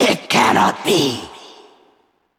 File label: Female Val'kyr ERROR GENERIC